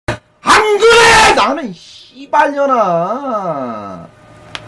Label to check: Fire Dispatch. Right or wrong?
Wrong